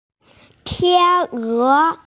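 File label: "tiane", Mandarin Chinese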